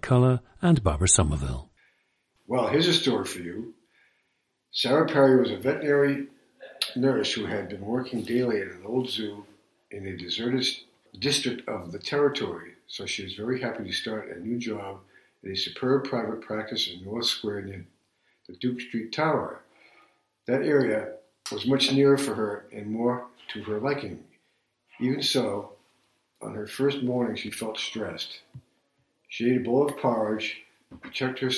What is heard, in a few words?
massachusetts, dialects